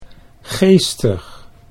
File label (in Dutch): "geestig", Dutch